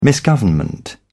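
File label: "misgovernment", British English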